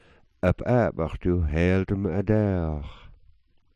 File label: "adawx", smalgyax